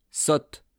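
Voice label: "sotte", French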